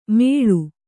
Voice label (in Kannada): "ಮೇಳು", Kannada